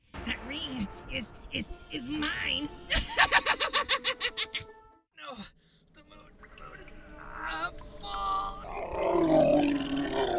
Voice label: Character Voices